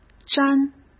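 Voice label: "沾", Mandarin Chinese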